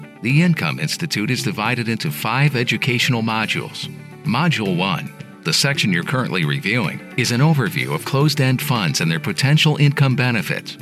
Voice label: English American e-learning